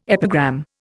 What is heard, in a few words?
"epigram", Indian